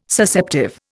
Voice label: "Susceptive", Indian